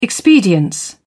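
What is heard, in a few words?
"expedience", British English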